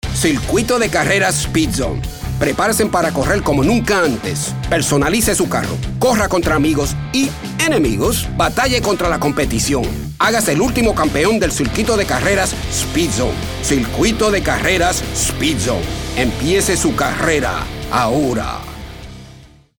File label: Spanish Announcer; Speedzone